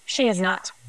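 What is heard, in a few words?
voice acting, es, female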